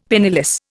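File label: "penniless", Indian English; Dictionary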